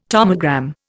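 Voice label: "tomorrow", Indian; Dictionary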